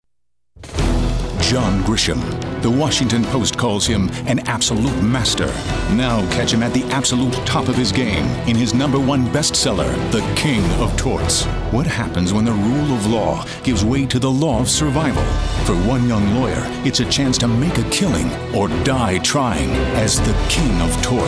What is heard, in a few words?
hard sell